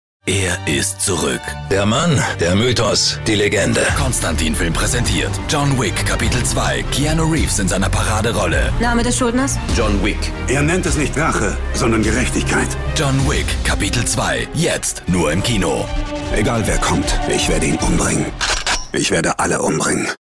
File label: Trailer cool; John Wick 2